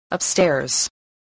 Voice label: "upstairs", English